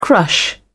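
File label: "crush", British English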